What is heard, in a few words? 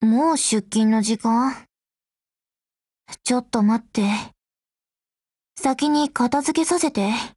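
HELLO Japanese, Voice; GF Alarm; VHS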